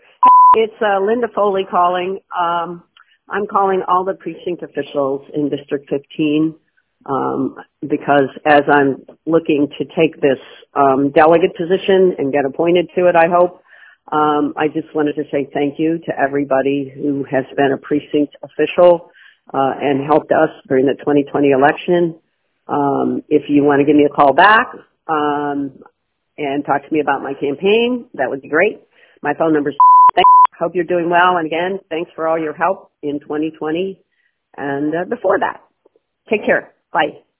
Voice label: voicemail